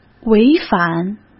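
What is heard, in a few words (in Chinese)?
"违反", Mandarin Chinese; hsk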